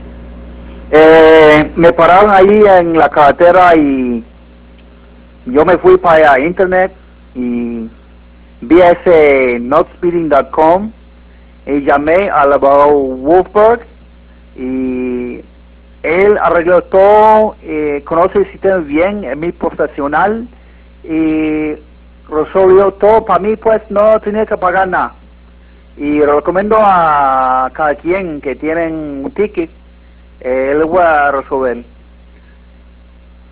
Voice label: spanish

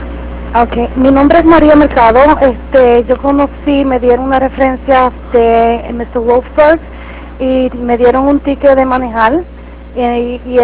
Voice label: spanish

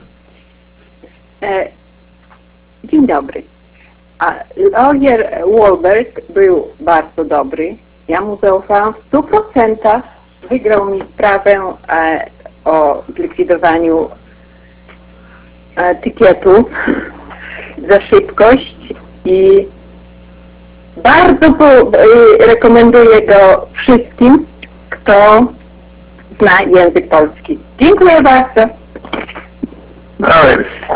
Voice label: polish

